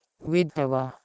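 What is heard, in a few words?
"h1widheweh2", Proto-Indo-European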